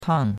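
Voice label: "tang4", Mandarin Chinese; Cours-de-Chinois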